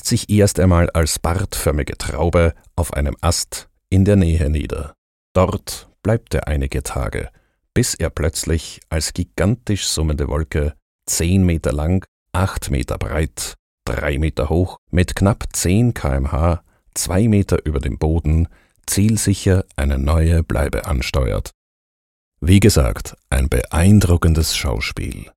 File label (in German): Doku Bienen